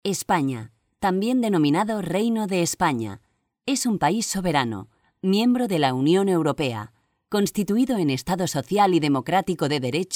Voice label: female spanish castilian